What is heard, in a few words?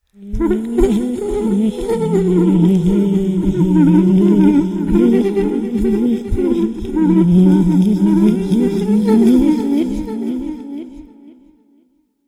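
sounds of scary voices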